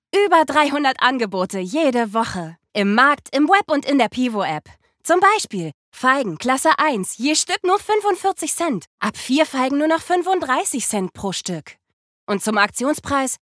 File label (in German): Werbung klassisch